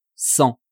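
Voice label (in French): "cent", French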